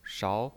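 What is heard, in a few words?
"shao", Mandarin Chinese pinyin, second tone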